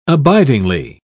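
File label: "abidingly", British English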